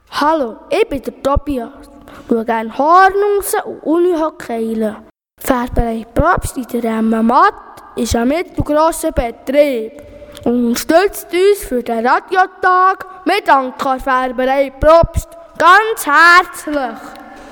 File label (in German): Werbung Färberei; Färberei Probst; Emmenmatt 4